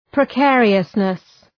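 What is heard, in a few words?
"precariousness", English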